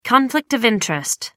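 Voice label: "conflict of interest", British English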